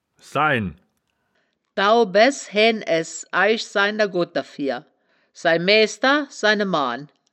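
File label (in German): "sain", wittlicher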